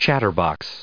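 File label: "chatterbox", English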